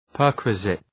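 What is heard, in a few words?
"perquisite", English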